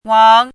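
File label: "wang2", Mandarin Chinese syllable, pinyin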